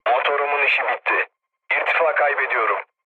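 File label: Turkish damaged engine, mood low, speech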